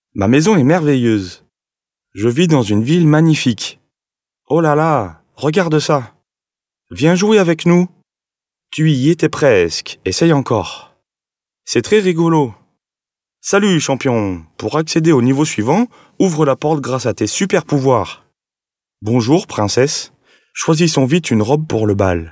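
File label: French male children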